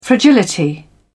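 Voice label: "fragility", English, British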